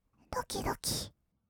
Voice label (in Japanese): "ドキドキ", kawaii voice; ASMR; 色々なワンショット; 1200 kawaii voice!!